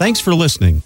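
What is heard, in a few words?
thanks for listening, male voice; expletives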